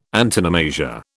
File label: "antonomasia", British